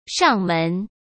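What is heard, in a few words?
"上门", Mandarin Chinese; chinese flashcards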